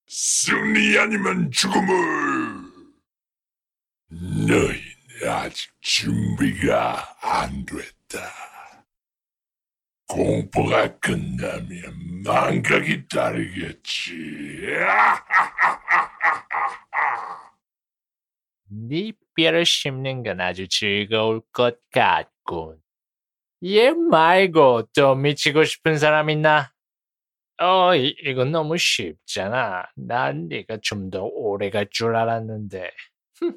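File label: Korean, male, character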